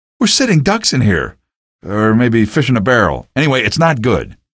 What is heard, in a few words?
remarks, male; video games; npc; source engine; episodic; episode 1